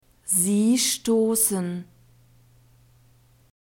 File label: "sie stossen", German; verbs present tense irregular